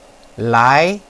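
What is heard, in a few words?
"lai", Mandarin Chinese, second tone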